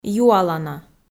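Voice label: "юалана", Komi, female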